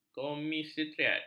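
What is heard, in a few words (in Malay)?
"komisariat", Malay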